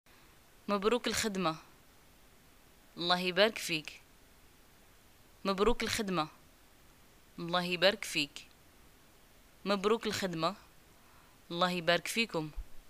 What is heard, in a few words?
greeting job, arabic